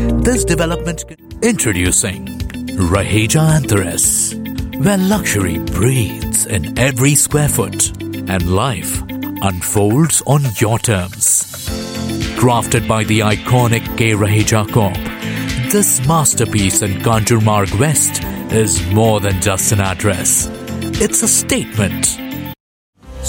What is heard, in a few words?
Indian English REAL ESTATE